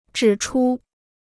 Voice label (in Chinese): "指出", Chinese